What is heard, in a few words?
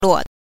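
"luo", Mandarin Chinese pinyin, neutral tone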